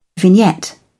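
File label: "vignette", British English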